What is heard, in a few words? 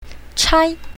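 "chai1", Chinese pinyin, south, female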